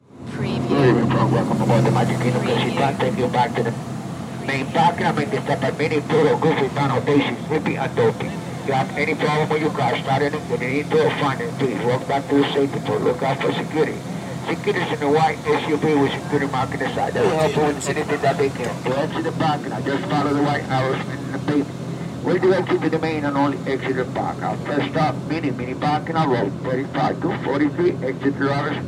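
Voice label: Shuttle Announcement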